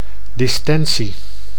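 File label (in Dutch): "distentie", Dutch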